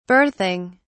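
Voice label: "berthing", British English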